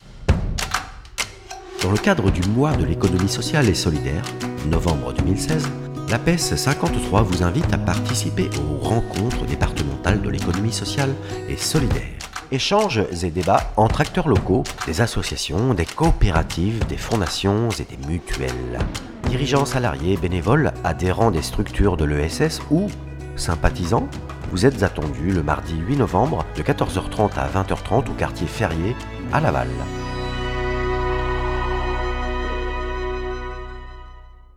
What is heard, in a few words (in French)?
spot soiree